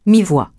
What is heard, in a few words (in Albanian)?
"mi-voix", frengjisht